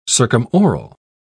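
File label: "circumoral", British English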